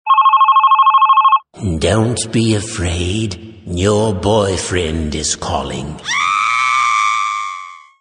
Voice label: Scary Boyfriend Call

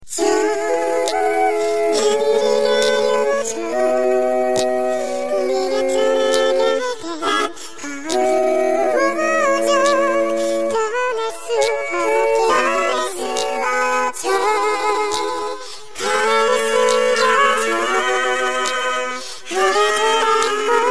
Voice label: Chipmunk

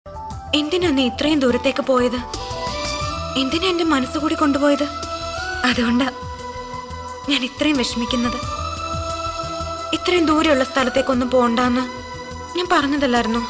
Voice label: Sweety Girl Dialogue

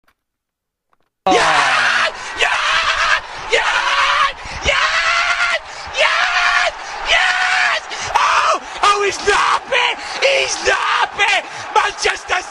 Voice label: Crazy Commentator

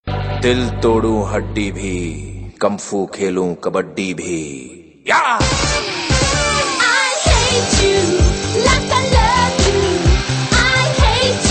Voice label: dialog; Amir Khan

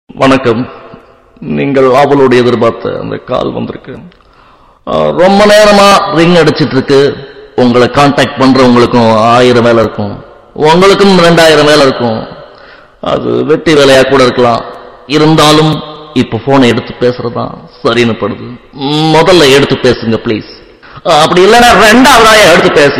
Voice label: Voice Ringtone

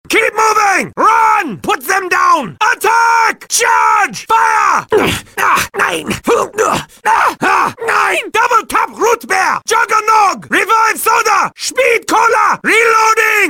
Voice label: gibberish; Rictoffen

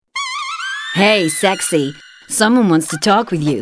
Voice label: Sweet Voice

